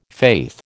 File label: "faith", American